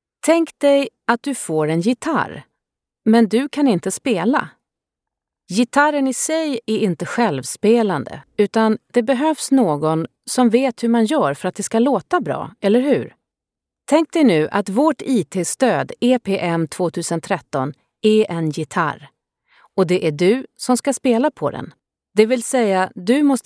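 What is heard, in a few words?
Swedish elearning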